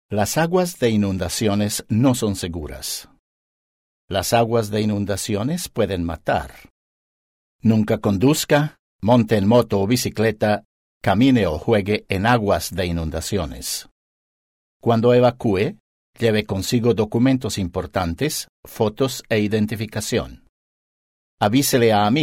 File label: spanish floodsafe audio